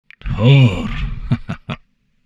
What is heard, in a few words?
humanoid portrait male; Stellaris; humanoids